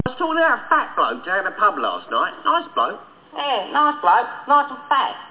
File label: fat bloke; Psion